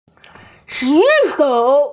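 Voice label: "raise hand", Mandarin; ju3shou3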